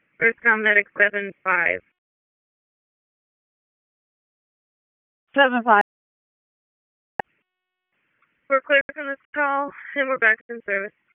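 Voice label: EMS Dispatch, p25; wake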